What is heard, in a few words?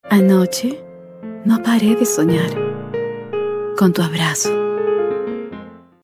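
Spanish, female, commercial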